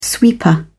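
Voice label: "sweeper", British English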